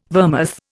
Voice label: "vermouth", Indian

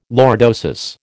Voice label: "lordosis", American

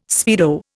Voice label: "speedo", Indian

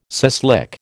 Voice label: "suslik", American

